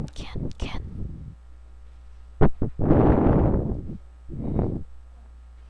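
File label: "can", spoken word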